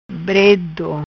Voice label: "Bredo", Macanese